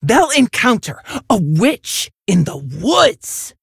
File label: Orc